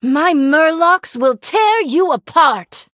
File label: Female Murloc Threaten